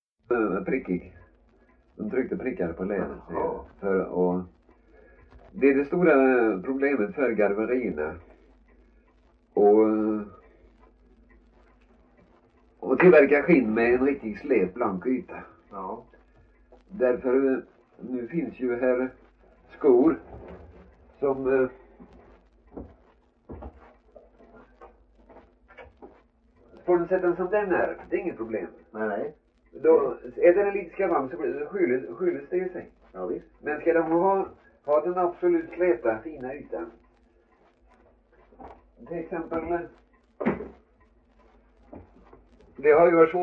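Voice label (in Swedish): Värö Halland, dialekter svenska sagor; Folkminnen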